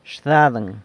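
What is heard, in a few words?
"xdadeng", San Lucas Quiaviní Zapotec; his dice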